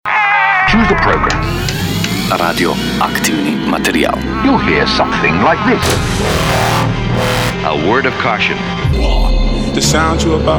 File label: RADIO; Bring RAM On